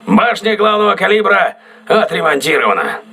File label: voice message main caliber turret repaired, crew ships russian